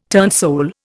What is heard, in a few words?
"turnsole", Indian